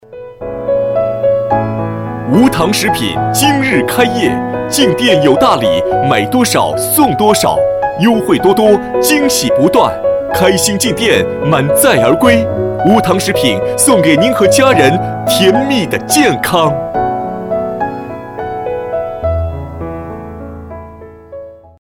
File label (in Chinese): 大气广告宣传